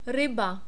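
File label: "ryba", Czech, male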